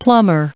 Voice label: "plumber", en-us